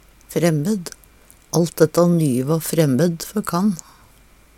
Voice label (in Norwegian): "fremmed", Numedalsmål; Alt detta nye va fremmed før kan.; Adjektiv